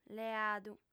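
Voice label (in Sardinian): "leadu", Sardinian, female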